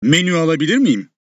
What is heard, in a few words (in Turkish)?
"Menu alabilir miyim", Turkish; turkish phrases for tourists